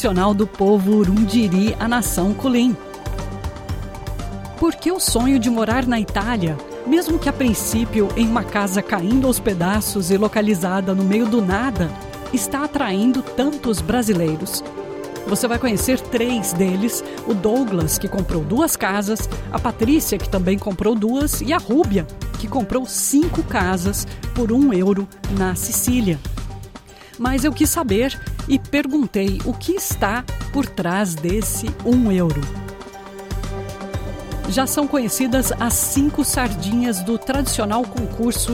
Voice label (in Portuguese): Programa de domingo, SBS Portuguese; programa que foi ao ar no domingo